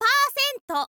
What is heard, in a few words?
"percent", girl; voice; info girl